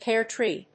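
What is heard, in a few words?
"pear tree", English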